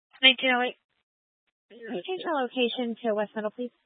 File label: Police Department Dispatch, scanner